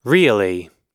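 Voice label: "really", US English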